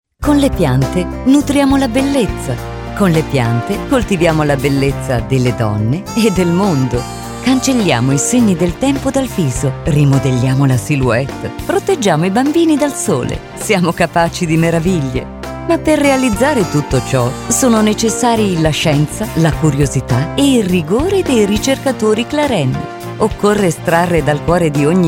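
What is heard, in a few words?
Spot, Italian female; CLARINS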